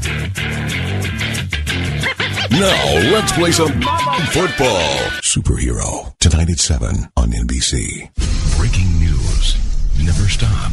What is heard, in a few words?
tv radio promo voice over station voice imaging